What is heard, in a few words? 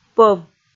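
"пӧв", Komi, female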